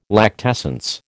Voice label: "lactescence", American